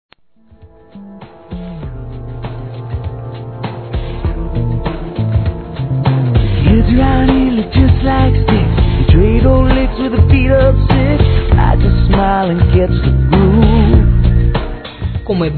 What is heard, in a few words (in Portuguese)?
Casamento Feminino